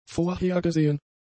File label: "vorhergesehen", German; adjectives